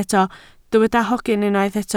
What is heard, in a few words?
"hogyn", Welsh